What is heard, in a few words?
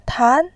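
"tan", Mandarin Chinese, second tone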